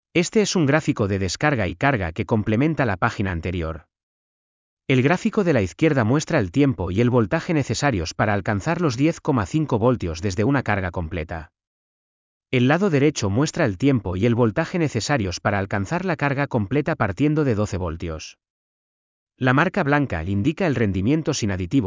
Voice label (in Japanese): ナレーター スペイン語